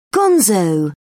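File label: "gonzo", British English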